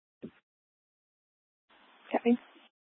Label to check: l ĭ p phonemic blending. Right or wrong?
Wrong